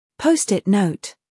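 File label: "humor", British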